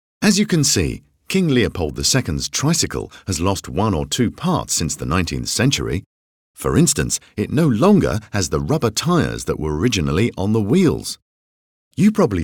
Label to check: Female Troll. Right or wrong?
Wrong